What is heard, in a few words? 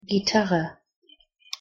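"gitarre", German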